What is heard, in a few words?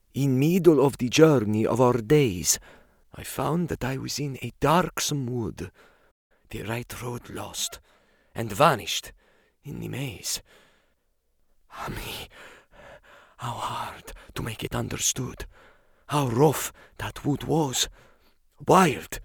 Italian